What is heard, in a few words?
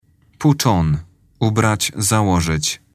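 "put on", English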